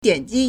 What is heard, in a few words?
"dian3 ji1", Chinese